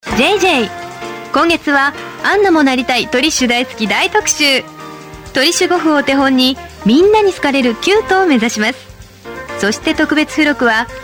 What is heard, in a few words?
Japanese Broadcast, Female